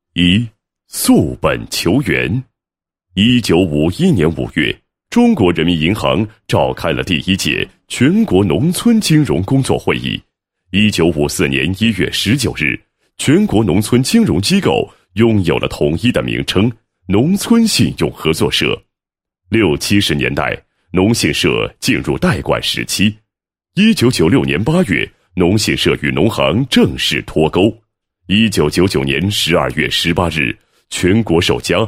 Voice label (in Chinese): 男-晚会-2015晚会时间线, 配音案例; 花行2015晚会时间线